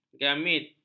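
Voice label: "gamit", Malay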